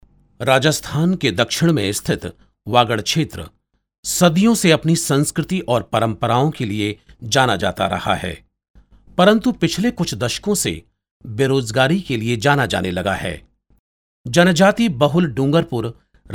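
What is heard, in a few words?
documentary, hindi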